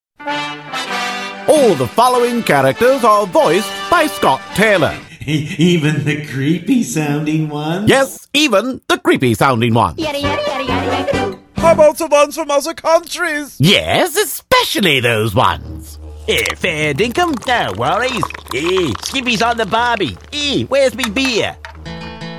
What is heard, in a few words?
Dialects